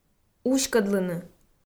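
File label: "уськӧдлыны", Komi, female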